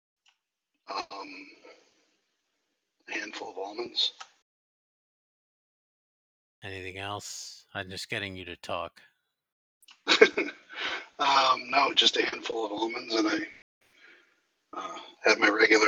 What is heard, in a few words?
ts3 recording